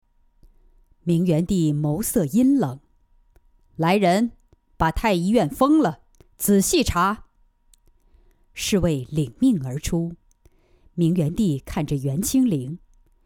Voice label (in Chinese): 古装; 权宠天下